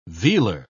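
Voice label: "vealer", British English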